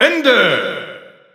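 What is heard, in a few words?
narration game set, German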